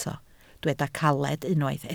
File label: "caled", Welsh